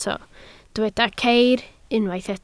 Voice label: "ceir", Welsh